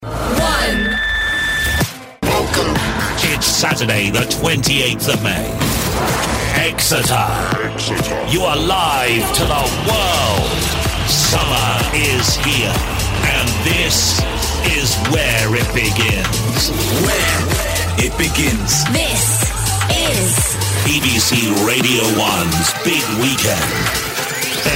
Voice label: deep imaging